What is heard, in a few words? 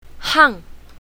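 "hang4", Chinese pinyin, south, female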